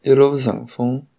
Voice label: bon voyage, shanghainese